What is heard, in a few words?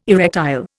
"erectile", Indian